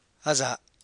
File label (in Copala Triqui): "asa̱'", Copala Triqui